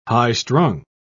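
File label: "high-strung", English, British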